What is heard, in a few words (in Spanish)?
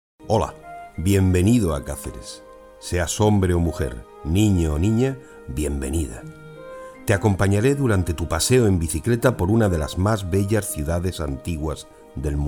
locucion inicial